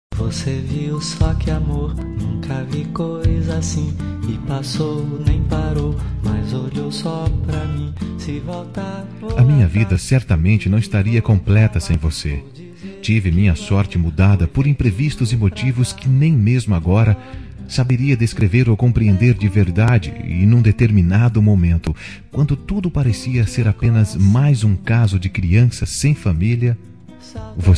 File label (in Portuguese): Aniv. de Mãe Adotiva M